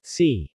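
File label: "see", English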